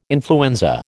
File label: "influenza", American